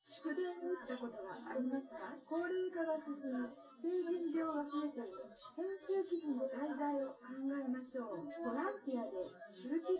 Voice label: female synth conv 250 ms 5 cm

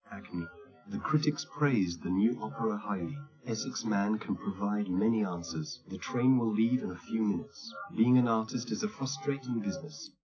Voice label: male; SiSEC11; underdetermined

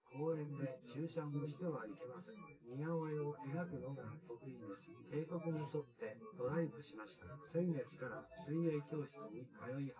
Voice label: test male synthconv

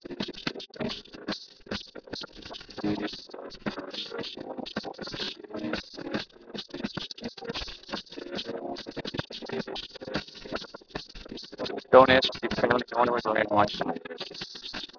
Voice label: mix mus sp M

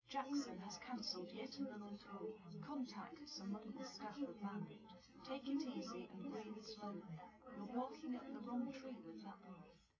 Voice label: female

